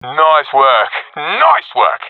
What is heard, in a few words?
round won, professional epic; vo; Counter Strike Model Pack; CS:GO